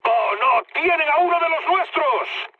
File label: aircraft destroyed mood med; speech; War Thunder